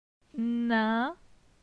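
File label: "Nin", French; phonetique; la syllabe in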